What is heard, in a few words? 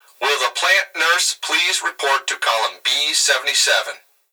Emergency Human Male Voice Plant Security Dispatch Will The Plant Nurse Please Report To